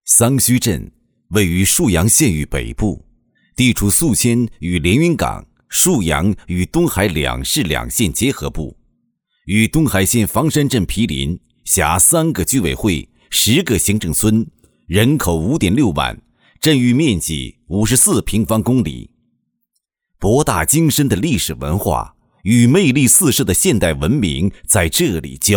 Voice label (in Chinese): 专题片样音; 海明专题片